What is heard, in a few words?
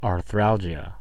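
"arthralgia" English, US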